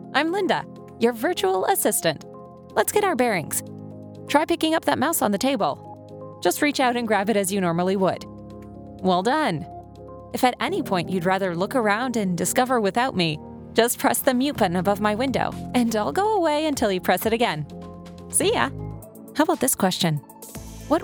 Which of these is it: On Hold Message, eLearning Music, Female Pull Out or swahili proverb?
eLearning Music